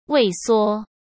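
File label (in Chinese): "畏缩", Mandarin Chinese; hsk; chinese-flashcards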